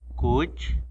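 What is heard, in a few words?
"pig", Tlacolula Zapotec; cuch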